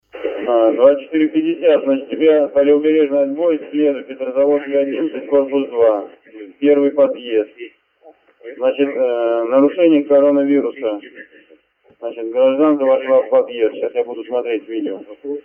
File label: audio Message